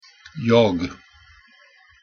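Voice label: "jag", Swedish pronunciation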